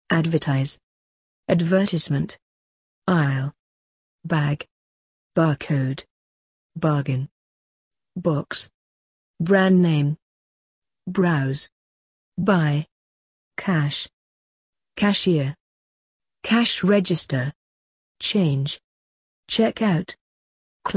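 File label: British; shopping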